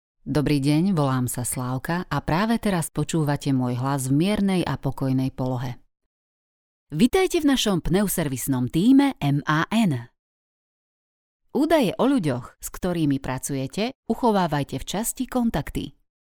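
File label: Slovak, female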